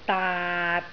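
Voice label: "daat6", Cantonese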